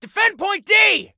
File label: DEFEND, Male; T2 Male 03